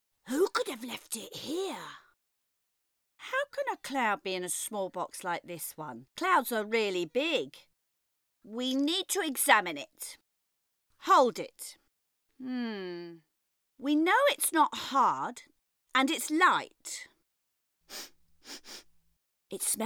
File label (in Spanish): personaje joven